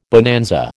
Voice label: "bonanza", American

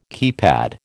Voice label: "keypad", American

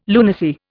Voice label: "lunacy", Indian

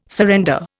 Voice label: "surrender", Indian

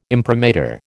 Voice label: "imprimatur", American

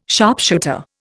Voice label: "sharpshooter", Indian

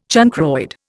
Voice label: "chancroid", Indian

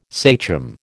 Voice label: "sachem", American

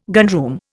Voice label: "gunroom", Indian

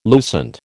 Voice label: "lucent", American

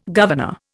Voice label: "governor", Indian

